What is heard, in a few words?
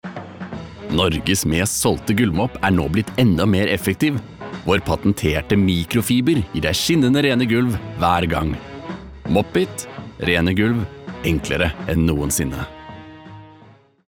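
Norwegian commercial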